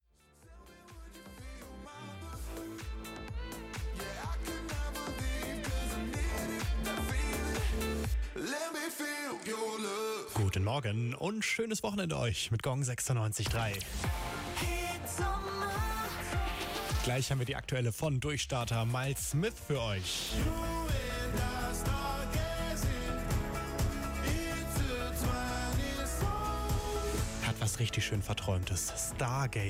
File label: Trailer; Gong 96.3; Bitpanda